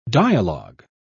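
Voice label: "dialog", British English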